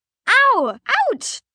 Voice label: Pain Ow Ouch, Ow, Ouch, girl; VoiceBox

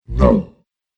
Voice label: Female Cave Troll Says No, monster; Monster Sound Effects

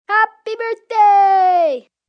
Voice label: Birthday Kid, child, boy; Occasion, event; Holiday

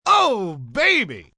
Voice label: Oh Baby, Sexy, fun, male, very happy; VoiceBox

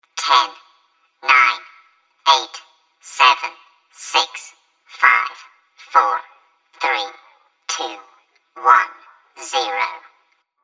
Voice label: Creepy Robot Countdown 10 To Zero

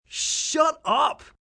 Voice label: Shut Up Annoyed, male, annoyed; Yelling

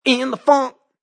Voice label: Vocal, Funk vocal loop sample.; Loop Vocal InTheFunk 110bpm

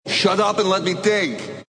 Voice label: Shut Up Let Me Think, Male

